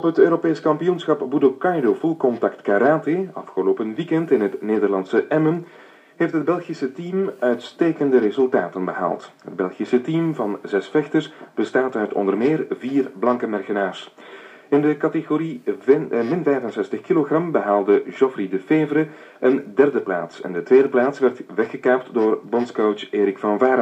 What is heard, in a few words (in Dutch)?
radio verslag; Blankenberge; Open Nederlands Isshinryu Full Contact Karate toernooi te Emmen NL